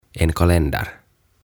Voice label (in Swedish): "En Kalender" "Kalenteri"